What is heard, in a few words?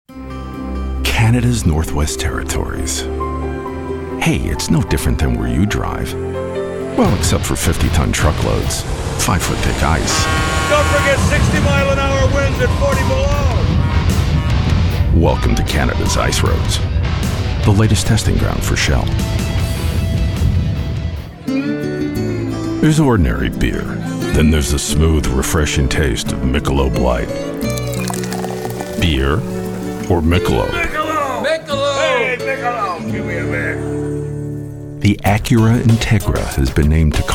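US, commercial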